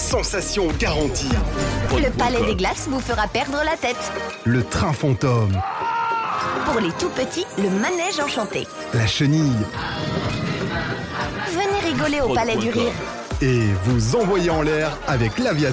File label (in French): Spot Adventure Park, publicite